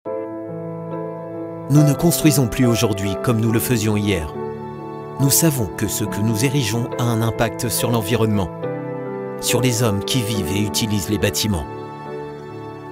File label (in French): voix off homme fr; Studio Com'un Son